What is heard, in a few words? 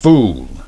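"fool", English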